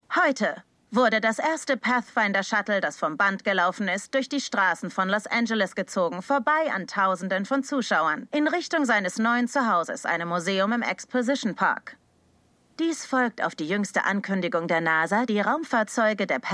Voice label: Nachrichtensprecherin; For All Mankind

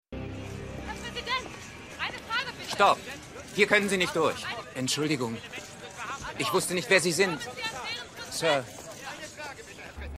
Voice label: Reporterin Freiwilliger; Mission Erde Sie sind unter uns

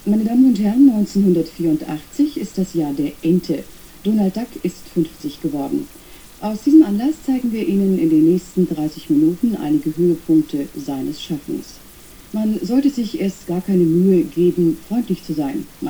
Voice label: Ansage; Alte Disney Cartoon Synchronfassungen

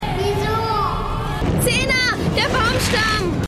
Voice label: Kind Sklavenmaedchen; Callisto Kind; Stimmproben; USA

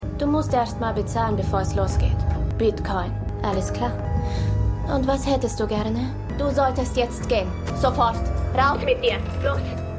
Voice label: Maedchen In Schlafzimmer, Stimmproben; Law & Order

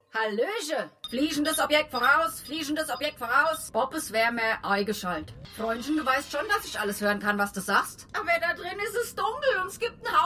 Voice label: Hessische Computer KI; Futurama; Hulu

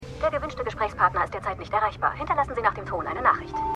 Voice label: Telefonstimme; Matlock

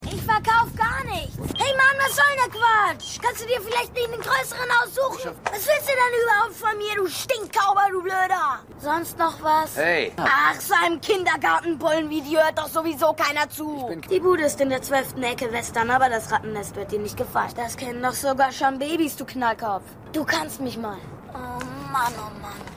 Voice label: Junge; Renegade Gnadenlose Jagd

